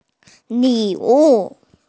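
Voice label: "li wu" (gift), Mandarin Chinese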